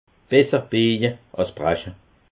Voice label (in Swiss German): "bieje", alsace